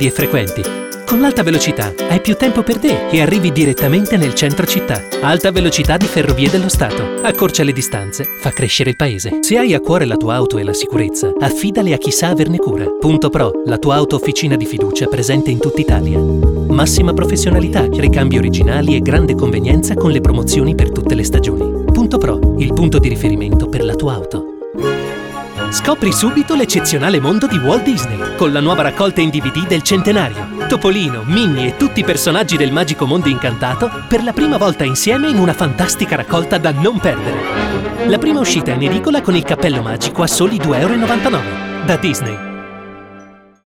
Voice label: ITALIAN VOICES Friendly Bright, male voices

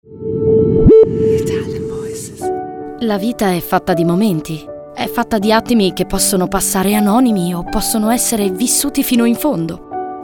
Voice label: ITALIAN VOICES Commercial, female voices

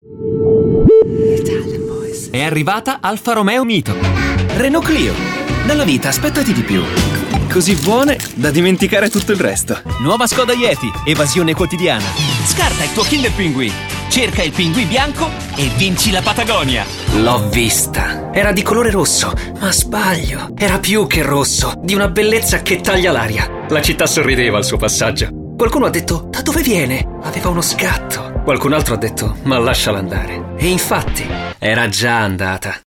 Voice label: ITALIAN VOICES Brilliant Young, male voices

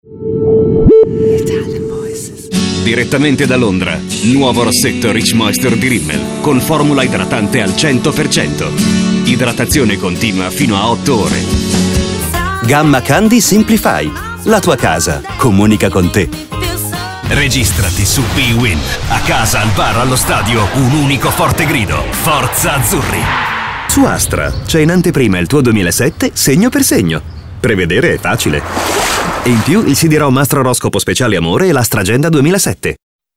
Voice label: Italian voices Straight Determinated, male voices

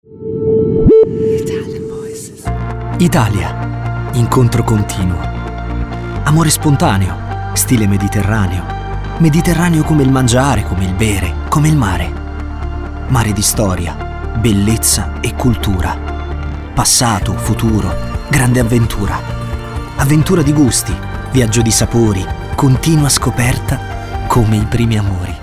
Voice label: ITALIAN VOICES Intense Genuine, male voices

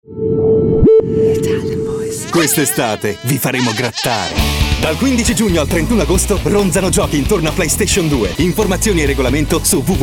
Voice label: ITALIAN VOICES Fresh Bright, male voices

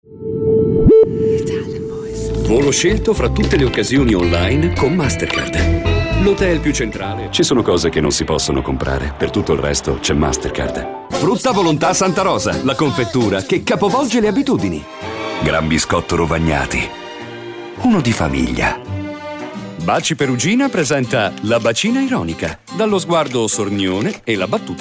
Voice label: ITALIAN VOICES Light Friendly, male voices

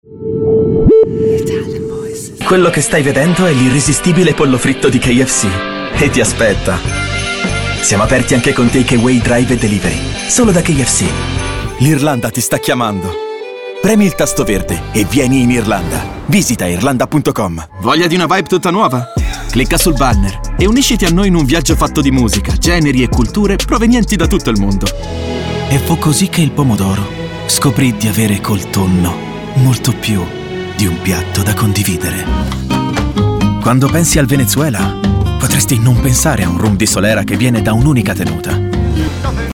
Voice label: ITALIAN VOICES Smooth Natural, male voices

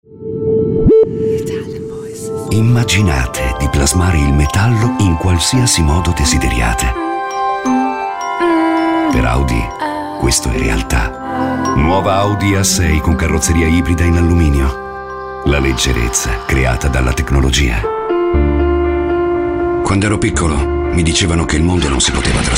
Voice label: ITALIAN VOICES Warm Smooth, male voices

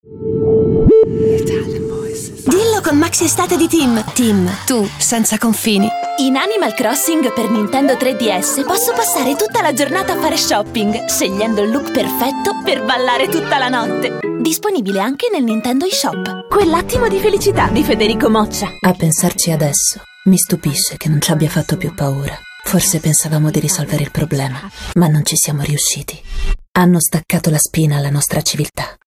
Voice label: ITALIAN VOICES Friendly Commercial, female voices

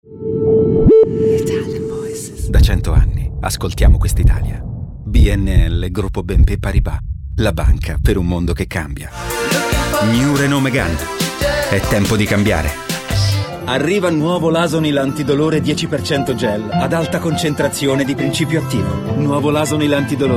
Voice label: Friendly Reassuring, Male Voices, ITALIAN VOICES

